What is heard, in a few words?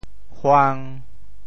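"huang1", Teochew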